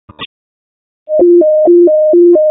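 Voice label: Fire Department Alert, p25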